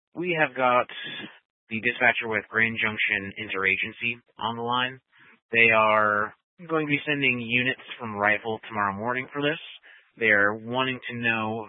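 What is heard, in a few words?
Incident Tac 2, Scanner audio recording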